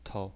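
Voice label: "tou", pinyin voice, neutral tone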